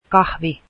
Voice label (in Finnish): finnish coffee, Finnish